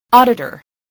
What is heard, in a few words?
"auditor", english